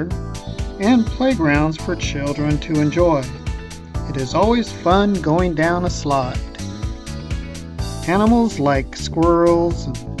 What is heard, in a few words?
woodward park voice over